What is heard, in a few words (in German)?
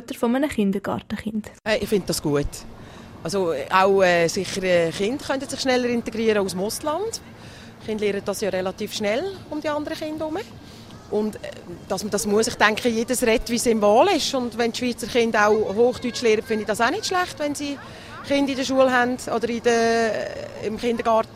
mundart